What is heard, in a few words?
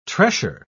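"tressure", British English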